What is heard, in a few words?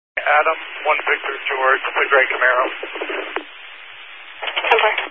Highway Patrol, Phoenix Metro East Area, scanner